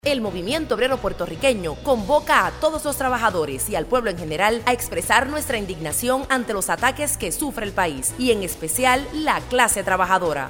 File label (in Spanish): cuña radial; 1RO MAYO